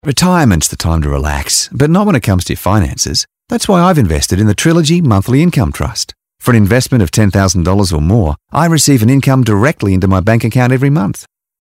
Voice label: voiceover; Trilogy

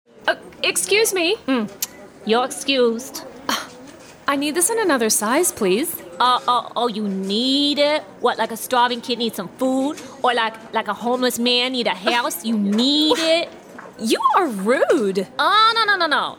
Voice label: voice acting

